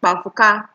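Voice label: "kpafuka", Nigerian Pidgin, female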